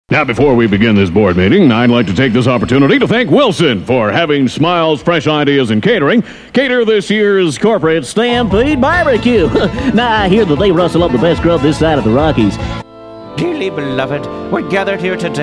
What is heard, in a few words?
Character High